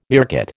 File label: "meerkat", American